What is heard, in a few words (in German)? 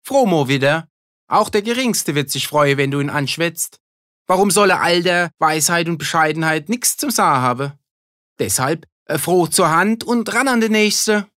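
Dialekt Pfälzisch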